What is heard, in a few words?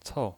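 "cou", Mandarin Chinese pinyin voice, neutral tone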